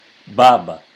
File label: "baba", Swahili, father; Swahili vowels